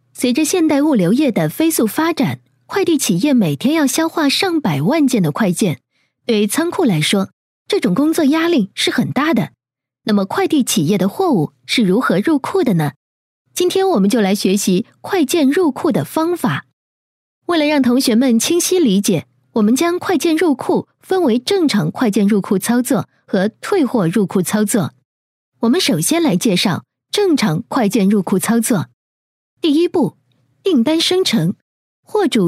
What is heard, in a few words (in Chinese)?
女 课件 菜鸟裹裹培训 干音